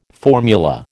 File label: "formula", American